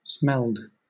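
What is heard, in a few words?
"smelled", British English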